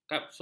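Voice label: "kapsul", Malay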